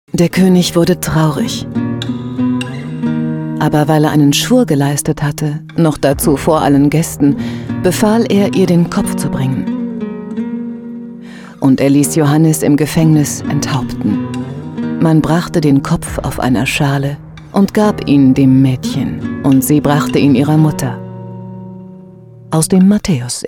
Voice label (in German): deutsch englisch